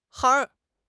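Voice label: "han4", Mandarin Chinese; HSK4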